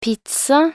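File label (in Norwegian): "pizza", Norwegian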